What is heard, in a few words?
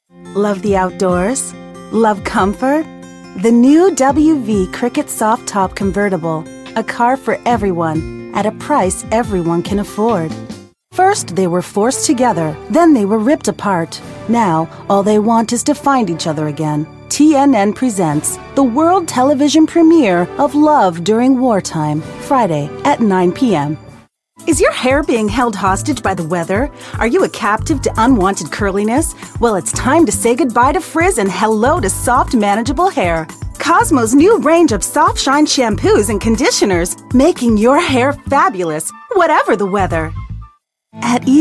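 American, TV commercial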